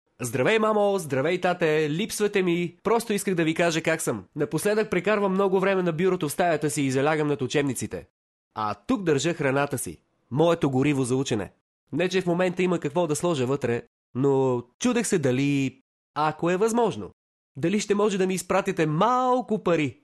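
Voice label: Bulgarian M Character, male; voice over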